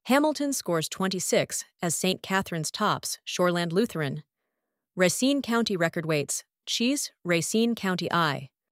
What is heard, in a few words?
narration